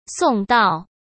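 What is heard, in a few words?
"送到", Mandarin Chinese; hsk; chinese flashcards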